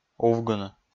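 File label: "увгыны", Komi, male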